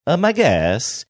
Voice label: saying uh my guess funny manner